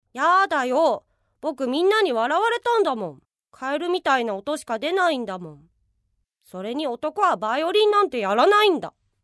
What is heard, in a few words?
female voice